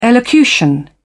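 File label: "elocution", British English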